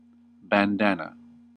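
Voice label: "bandana", US English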